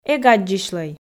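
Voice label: "эг аддзысьлӧй", Komi, female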